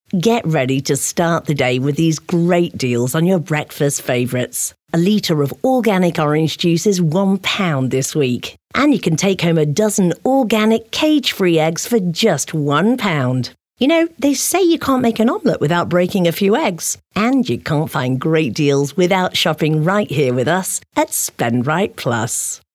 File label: Casual Conversational